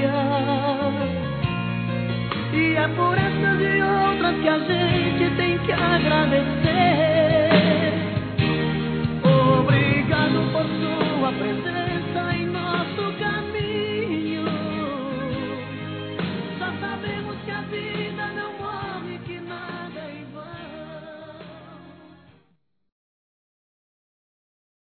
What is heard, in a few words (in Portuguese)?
Feliz Ano Novo Feminino, Telemensagens; Natal e Ano novo